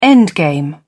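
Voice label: "endgame", British English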